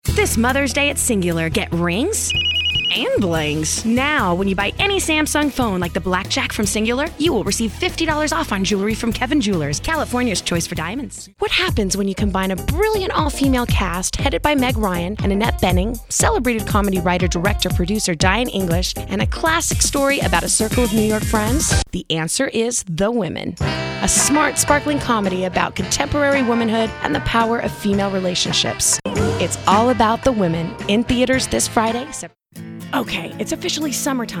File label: Commercial VO